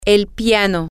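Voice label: piano, Spanish, female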